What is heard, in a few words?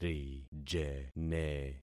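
"rijene", concatenated syllables; Affixing experiment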